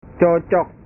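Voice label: "cocok", Indonesian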